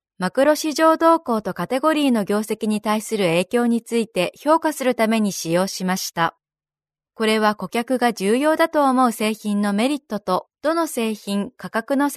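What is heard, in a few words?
Japanese, female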